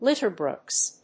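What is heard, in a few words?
"litter box", English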